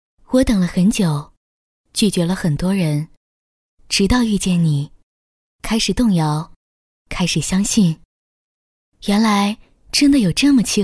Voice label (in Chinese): 女 短视频 深情独白; 低沉走心